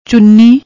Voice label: "chuni", Punjabi

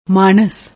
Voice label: "maanas", Punjabi; ਮਾਣਸ

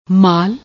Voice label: "maal", Punjabi

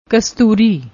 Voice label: "kastoori", Punjabi; ਕਸਤੂਰੀ

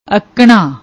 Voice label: "akna", Punjabi

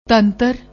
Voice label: "tantar", Punjabi; ਤੰਤਰ

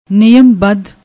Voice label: "niyambad", Punjabi

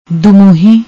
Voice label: "dumuhi", Punjabi; ਦੁਮੂੰਹੀ

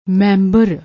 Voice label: "membar", Punjabi

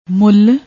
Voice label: "mull", Punjabi

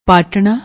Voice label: "paatna", Punjabi

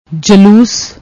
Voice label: "jaloos", Punjabi

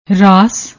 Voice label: "raas", Punjabi

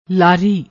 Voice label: "laari", Punjabi

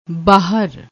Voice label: "baahar", Punjabi

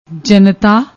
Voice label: "jantta", Punjabi

